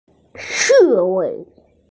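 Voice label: "hedgehog", Mandarin, hearing-impaired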